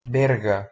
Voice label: "berga", Proto-Germanic